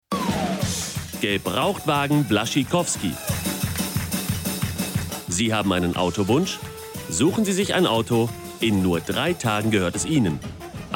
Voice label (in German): werbung gebrauchtwagen